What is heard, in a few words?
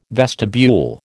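"vestibule", American English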